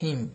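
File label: "him", Nigerian Pidgin, male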